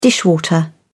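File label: "dishwater", British English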